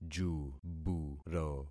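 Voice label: "juburo", concatenated syllables; Affixing experiment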